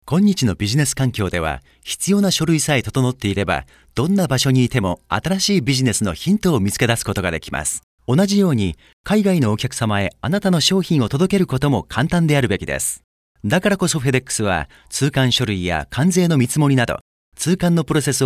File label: japanese m commercial; voice demos